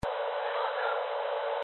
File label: mans voice filter